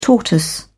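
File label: "tortoise", English, British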